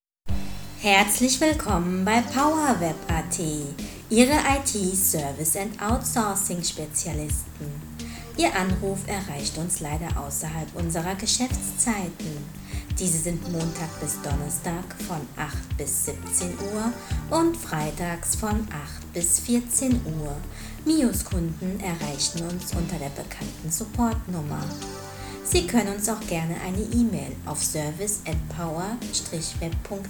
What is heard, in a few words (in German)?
Telefon Anrufbeantworter